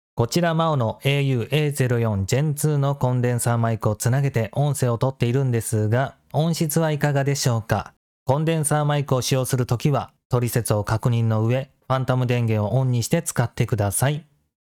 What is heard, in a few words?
maono g1 neo